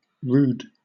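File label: "rood", British English; pronunciations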